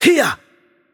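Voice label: Hungarian attack heavy voice; bloom minigame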